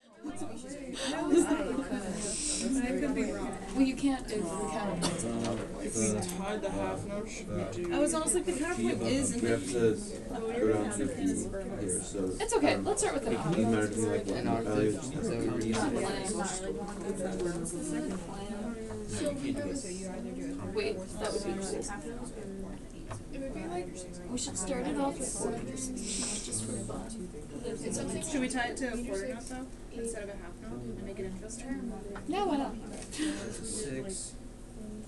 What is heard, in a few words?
counterpoint chatter